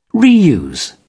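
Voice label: "reuse", British English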